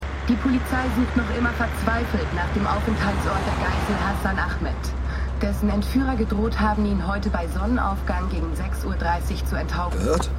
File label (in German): Radio Nachrichtensprecherin; Slow Horses